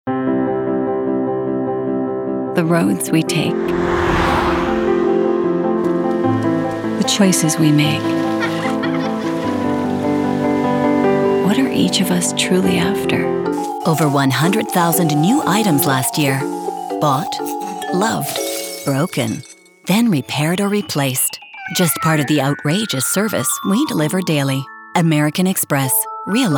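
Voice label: commercials english announcer character mix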